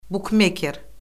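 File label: "bukmeker", Azerbaijani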